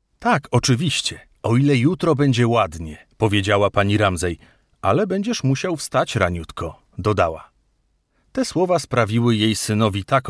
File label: Polish voice audiobook